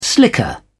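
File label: "slicker", British English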